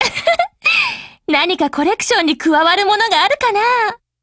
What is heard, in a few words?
WIN JP; MP443